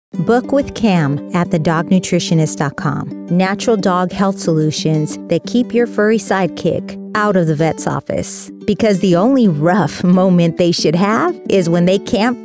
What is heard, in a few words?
Dog Nutritionist, The Dog Nutritionist Online Ad, Conversational, Friendly, relatable Female Voice; Narration